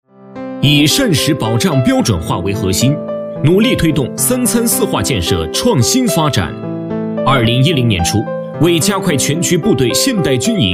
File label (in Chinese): 男 专题 规范讲解, 国语男声配音, 浑厚; 部队饮食改革